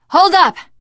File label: wait here, teen girl voice; survivor voice